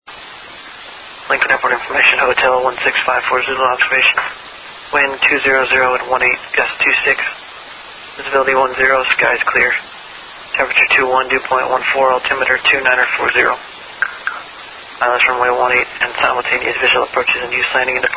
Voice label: ATIS